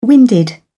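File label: "winded", British English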